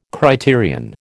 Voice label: "criterion", American